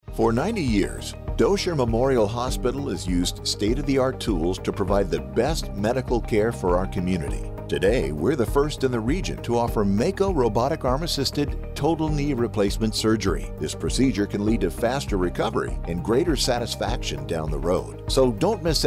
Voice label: Radio; Mako Robot Video